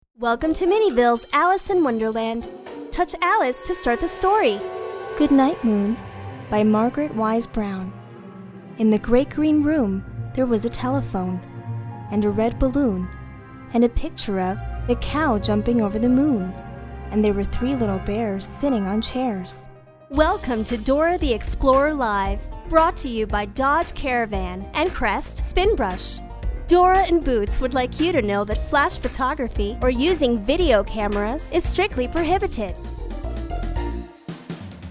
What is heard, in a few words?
English